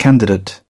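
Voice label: "candidate", British English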